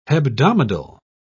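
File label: "hebdomadal", British English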